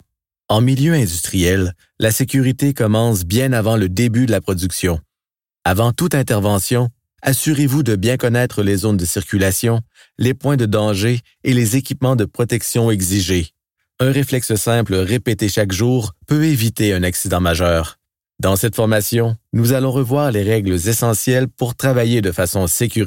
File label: E-LEARNING Serious Credible Safety Factory Floor